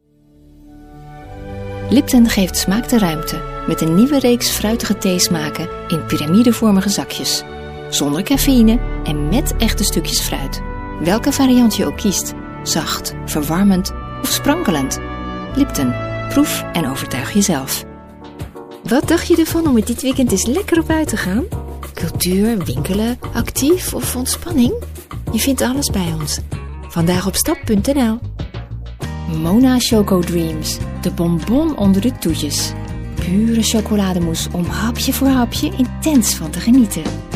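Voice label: Dutch female commercial; voice demos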